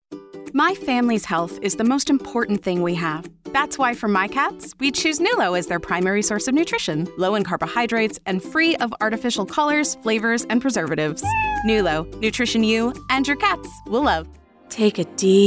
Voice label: women commercial; A vibrant, dynamic feminine voice embodying the importance of being earnest - from bright & bubbly to warm & wistful